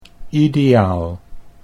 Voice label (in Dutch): "ideaal", Dutch